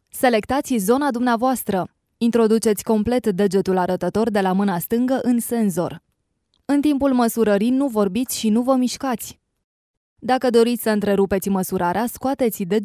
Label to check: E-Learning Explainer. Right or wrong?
Wrong